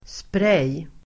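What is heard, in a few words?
"spray", Swedish; Uttal: [sprej:]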